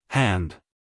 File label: "hand", US, male